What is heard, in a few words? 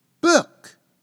"book", English